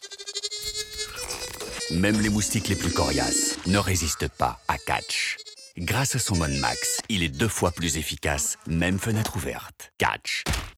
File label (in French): PUB Catch, voix pubs tv